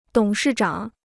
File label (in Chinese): "董事长", Chinese